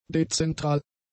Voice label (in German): "dezentral", german; adjectives